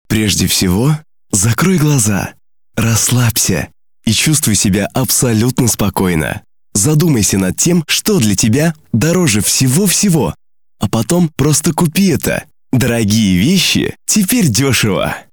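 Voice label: Russian, male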